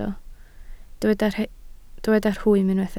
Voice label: "rhwym", Welsh